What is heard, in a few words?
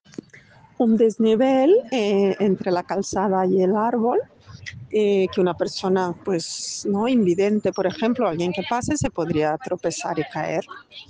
Voice message